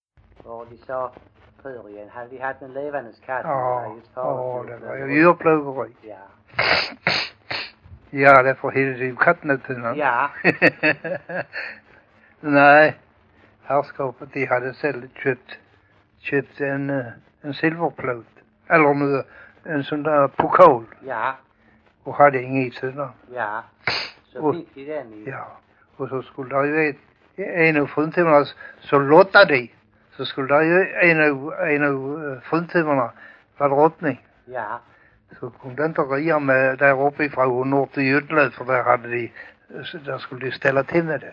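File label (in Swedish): Gödelöv Skåne, dialekter; Folkminnen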